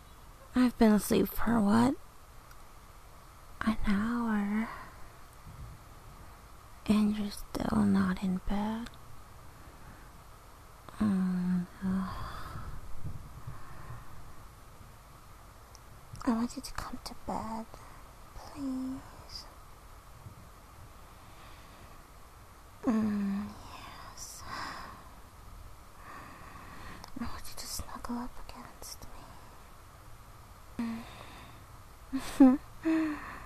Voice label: F4A, Bedtime Snuggles, Sweet, Reassurance, Sleep Aid, Whispers, Rain; Positivity